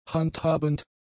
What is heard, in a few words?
"handhabend", German; adjectives